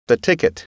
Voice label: "ticket", English